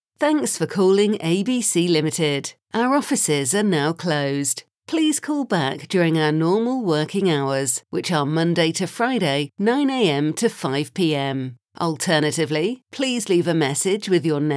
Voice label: Female Out of Hours Voicemail